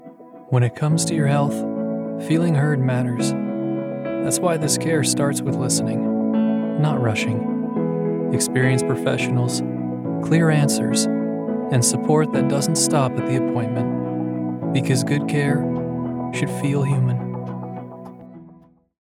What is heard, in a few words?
Genuine Believable